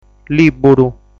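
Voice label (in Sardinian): "Lìburu", Sardinian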